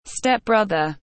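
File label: "Step brother", English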